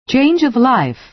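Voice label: "change of life", American English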